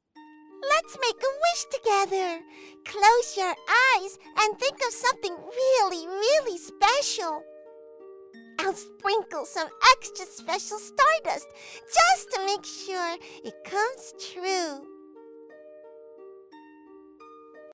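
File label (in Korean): 미국영어 차분/편안; Voice actor sample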